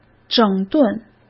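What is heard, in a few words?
"整顿", Mandarin Chinese; hsk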